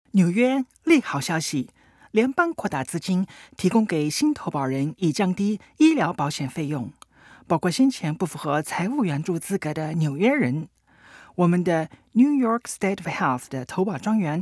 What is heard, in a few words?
Chinese Mandarin F